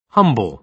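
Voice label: "humble", British English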